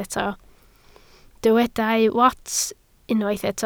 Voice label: "wats", Welsh